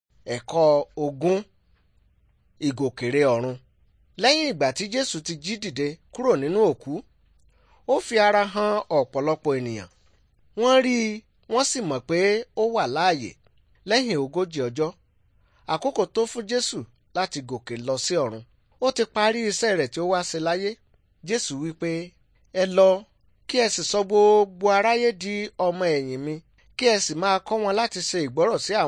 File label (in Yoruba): good news yoruba